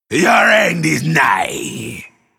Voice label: Male Troll Drakkari Play